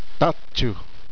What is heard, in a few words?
"father", Zuni language